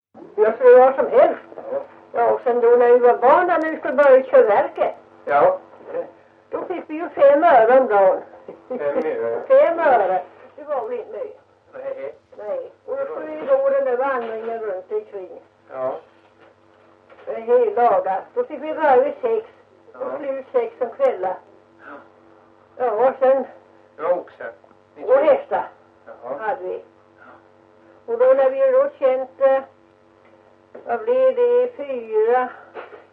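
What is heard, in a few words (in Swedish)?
Ventlinge Öland, Svenska sagor dialekter; Folkminnen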